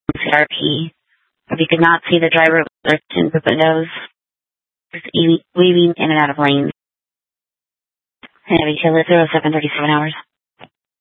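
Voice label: Police Department North Dispatch, scanner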